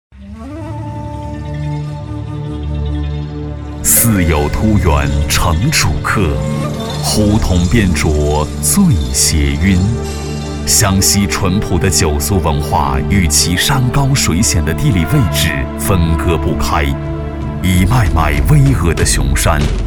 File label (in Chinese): 男 宣传片; 湘西酒鬼酒央视宣传片